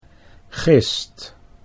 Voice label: "gist", Dutch